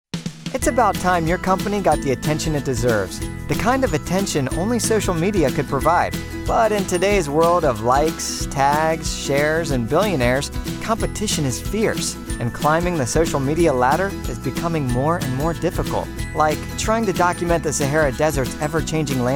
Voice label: Explainer